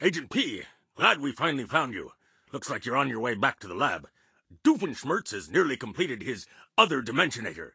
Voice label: English Voice